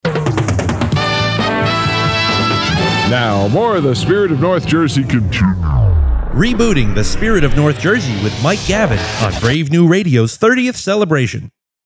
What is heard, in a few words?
Hit Radio name shout; Reboot